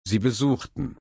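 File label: "besuchen", German, slow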